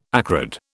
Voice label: "acrid", British